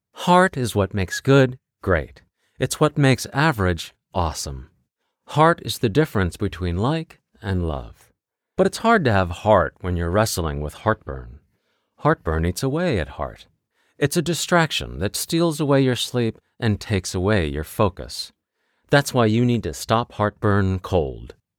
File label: comm Heartburn reassuring; Stop Heartburn Cold